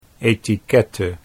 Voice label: "etiquette", Dutch

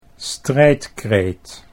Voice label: "strijdkreet", Dutch

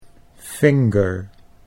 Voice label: "finger", Dutch English

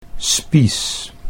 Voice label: "spies", Dutch

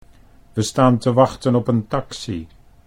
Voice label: "we staan te wachten op een taxi", Dutch

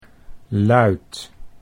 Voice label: "luit", Dutch

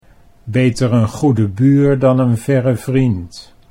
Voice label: "beter een goede buur dan", Dutch

